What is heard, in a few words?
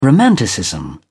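"romanticism", English, British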